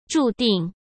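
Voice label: "注定", Mandarin Chinese; hsk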